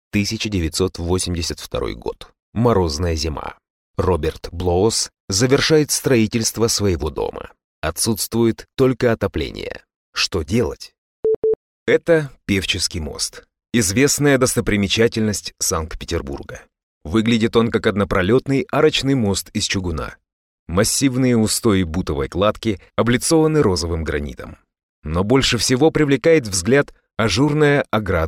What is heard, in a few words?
russian documentaries